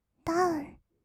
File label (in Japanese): "ダウン", kawaii voice; 音ゲー